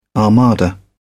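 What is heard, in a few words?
"armada", British English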